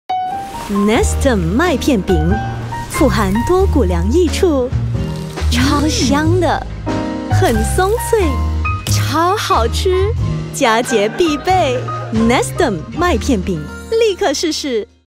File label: Mandarin Announcer, Style: Fun, Confident & Engaging; Jacobs